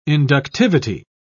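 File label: "inductivity", British English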